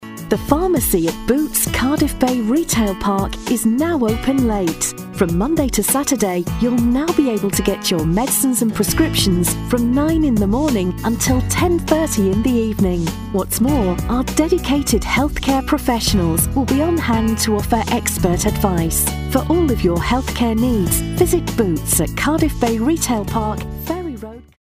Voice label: female